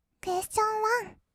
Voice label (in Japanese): "クエスチョンワン", kawaii voice; 音ゲー